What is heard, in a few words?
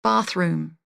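"bathroom", English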